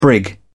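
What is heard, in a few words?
"brig", British English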